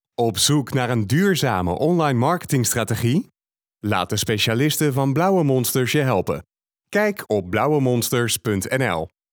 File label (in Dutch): Radio; lauwe Monsters